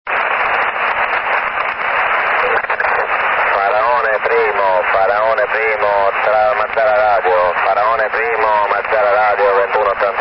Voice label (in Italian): radio chiama 2182 khz; mazara radio chiama farone primo 2125